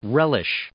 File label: "relish", English